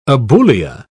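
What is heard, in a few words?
"aboulia", English, British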